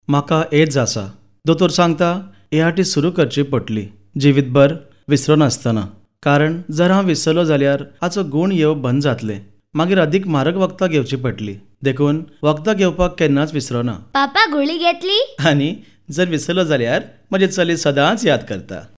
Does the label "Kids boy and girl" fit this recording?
No